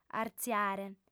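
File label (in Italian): "artziare", lingua sarda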